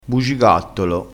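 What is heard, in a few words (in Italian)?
"bugigattolo", dialetto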